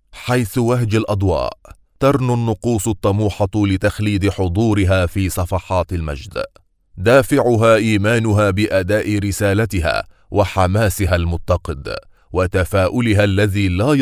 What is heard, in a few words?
arabic informative